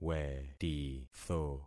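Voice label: "wetitho", concatenated syllables; Affixing experiment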